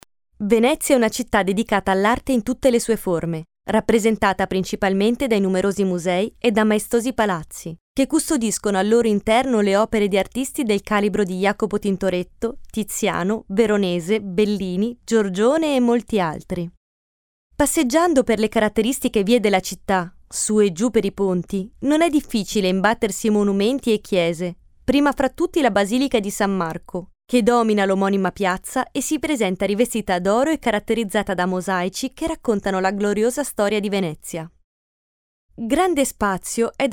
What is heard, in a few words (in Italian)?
Italiano documentario